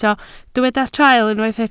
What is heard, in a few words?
"traul", Welsh